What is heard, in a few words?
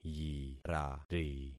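"yirari", concatenated syllables; Affixing experiment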